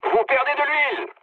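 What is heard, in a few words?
damaged oil, mood low, French; War Thunder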